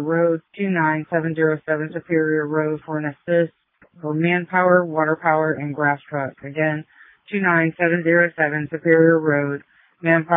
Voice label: Fire, dispatch

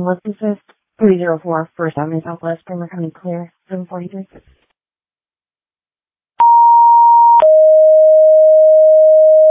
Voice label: Tripoli Fire, dispatch

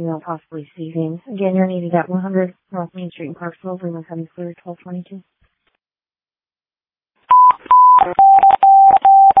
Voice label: Waverly Ambulance, dispatch

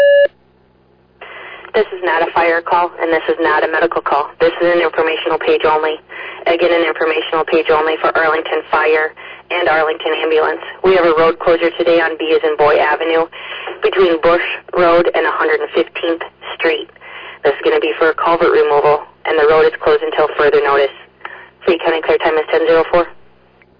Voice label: Arlington Fire, dispatch

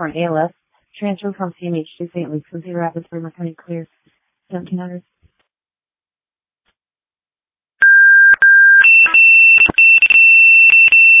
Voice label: Ambulance, dispatch; Sumner

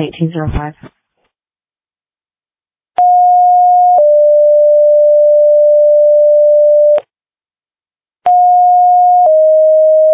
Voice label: Fire, dispatch; Plainfield

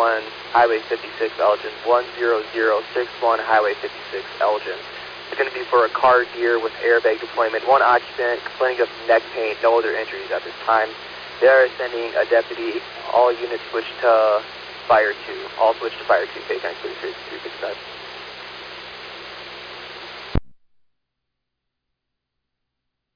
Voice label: Ambulance, dispatch; Elgin

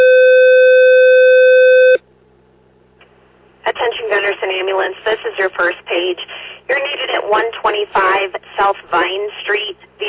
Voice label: Ambulance, dispatch

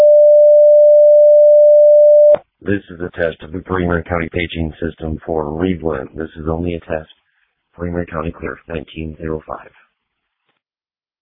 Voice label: fire, dispatch; Readlyn

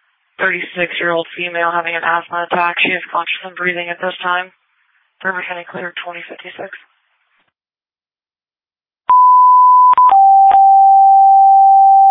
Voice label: Waverly Ambulance, dispatch

